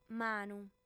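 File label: "manu", female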